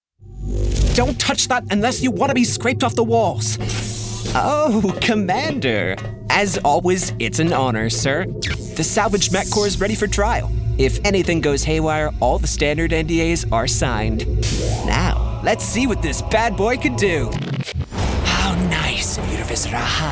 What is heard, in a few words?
Animation